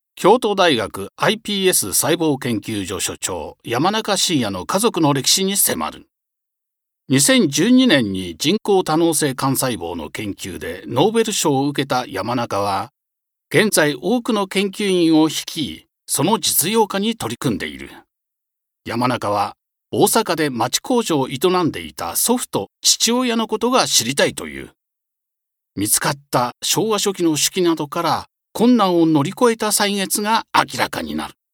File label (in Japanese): 人物紹介ナレ